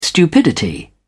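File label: "stupidity", English, uk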